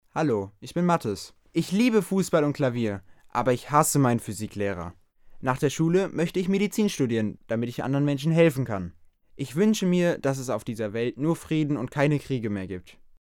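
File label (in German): intro, Kindersprecher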